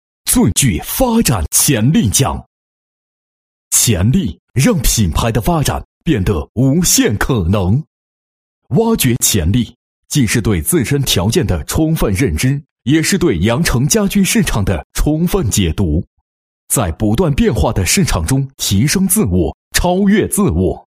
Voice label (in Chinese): 男 颁奖; 红星美凯龙